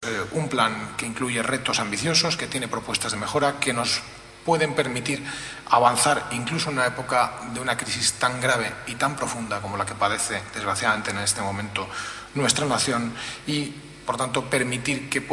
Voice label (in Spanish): noticias